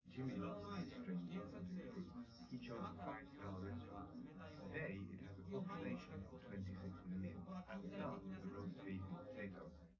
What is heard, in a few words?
male4 liverec 250ms 5cm sim